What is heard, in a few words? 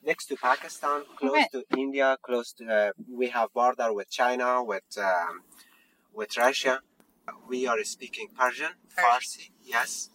Afghanistan M Age 30s Monologue